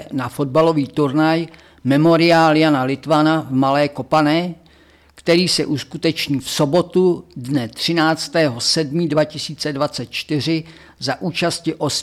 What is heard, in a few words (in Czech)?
rozhlas memorial v male kopane